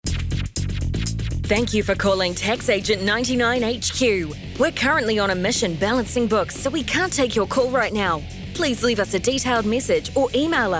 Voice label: Tax Agent Voicemail